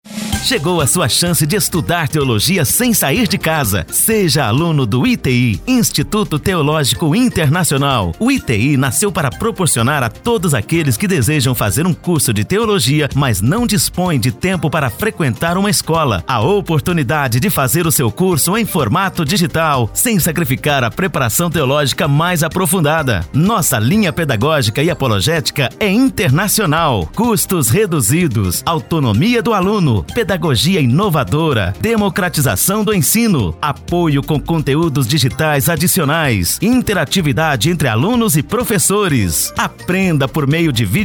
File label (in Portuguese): locutor spot; iti brasil